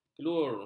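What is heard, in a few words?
"kelur", Malay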